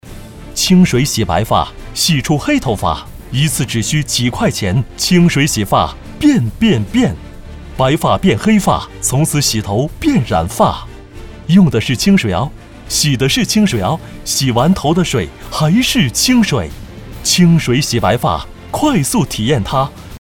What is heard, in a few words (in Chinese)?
男 电视购物 洗黑发